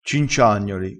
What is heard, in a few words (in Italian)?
"cinciagnoli", crespinese